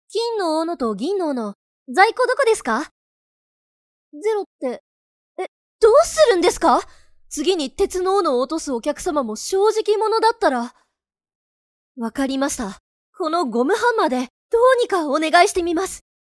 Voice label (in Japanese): ボイスサンプル 女性社員イメージ